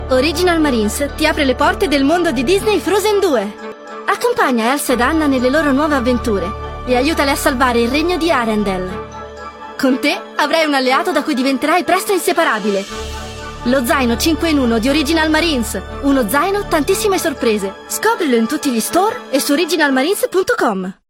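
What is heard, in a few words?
Children advertisement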